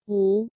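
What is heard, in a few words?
"Wú", Chinese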